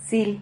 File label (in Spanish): "xil", Silabas